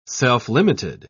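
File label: "self-limited", British English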